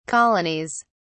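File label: "colonies", British English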